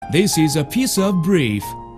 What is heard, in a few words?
english engrish best knife commercial